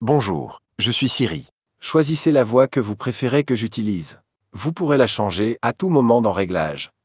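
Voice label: French, France; iOS Sounds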